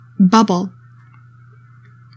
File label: "BUBBLE", English; 3000 words